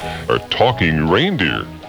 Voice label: talking reindeer, xmas

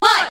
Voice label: what group of men

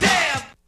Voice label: "damn", expletives

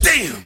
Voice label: "damn", expletives

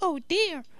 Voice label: "oh dear", girl; Voice; expletives

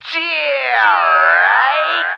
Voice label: "jeah right", Voice

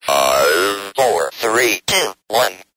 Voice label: 5 4 3 2 1 robot faster; Voice; numbers and letters

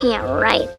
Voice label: "yeah right", girl

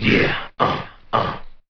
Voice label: yeah demon; ooh ahh

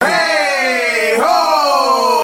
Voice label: "hey ho" group of men, voice; ooh ahh

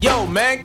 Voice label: "yo man", Voice; ooh ahh

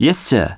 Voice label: yes sir, male

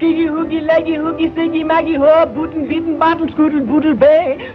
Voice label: heedy loogy leggy loogy, gibbrish; Voice

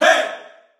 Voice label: "hey", group of men

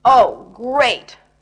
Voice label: "oh great", female; Voice; expletives

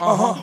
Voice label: "uh huh", rock; ooh ahh

